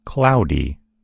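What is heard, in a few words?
"cloudy", American English; gcompris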